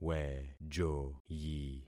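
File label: "wejoyi", concatenated syllables; Affixing experiment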